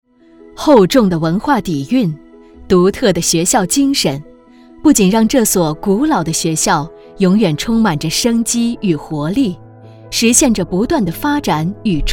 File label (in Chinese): 宣传片沉稳女中音学校宣传